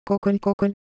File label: Korean, ideophones; Texture